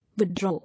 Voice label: "Withdraw", English